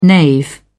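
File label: "knave", British English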